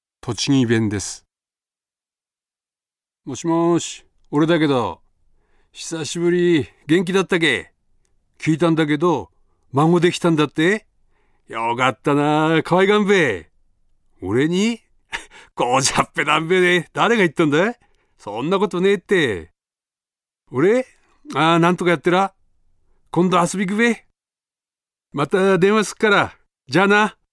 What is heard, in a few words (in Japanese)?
方言, 栃木弁